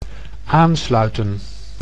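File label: "aansluiten", Dutch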